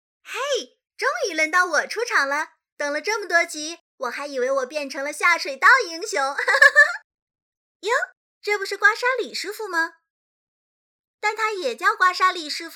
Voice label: 角色音; 王者荣耀

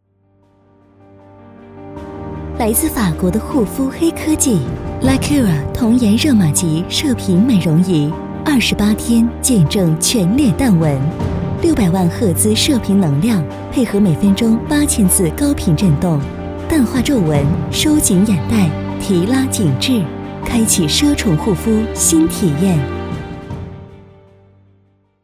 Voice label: 护肤高端广告

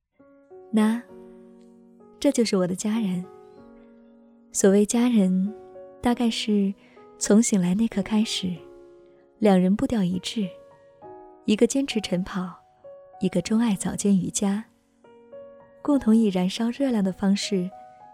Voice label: 女广告自述

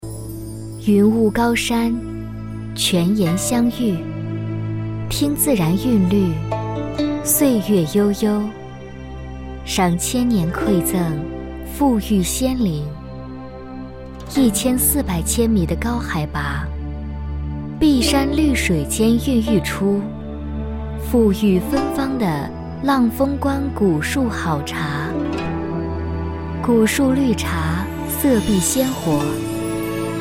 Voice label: 记录广告

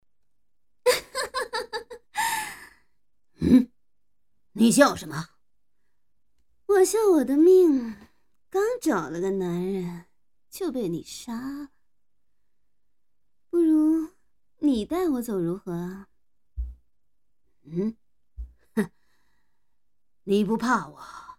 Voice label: 性感 御姐 和 粗犷 男 伪音

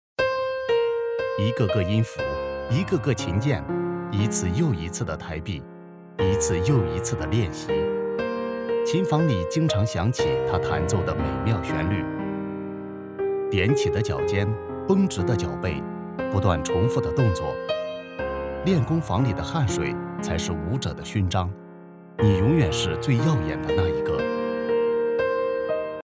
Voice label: 旁白讲述感

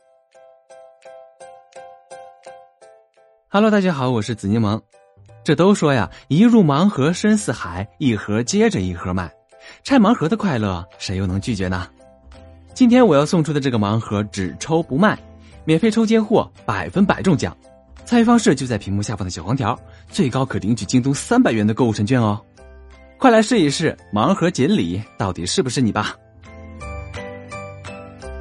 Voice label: 广告语 盲盒抽奖活动推广; 京东

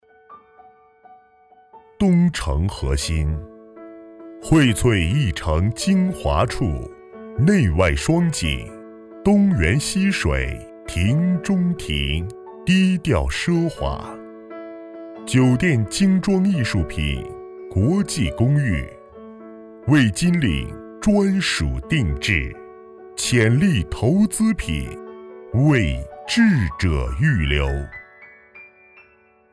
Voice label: 配音广告房地产

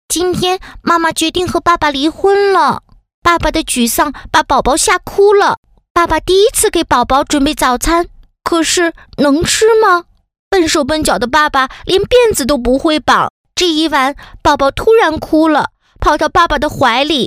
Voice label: 女童

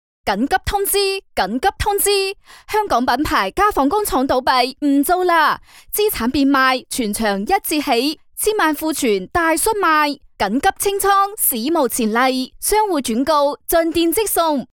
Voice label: 粤语 促销 紧急通知

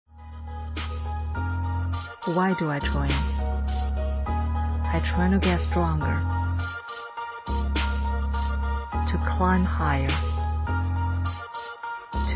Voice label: 英文 运动服饰 广告

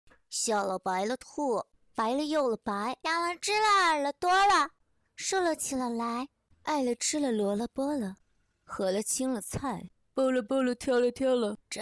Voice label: 干音试音小白兔

